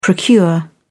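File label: "procure", English, British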